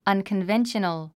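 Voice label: "unconventional", English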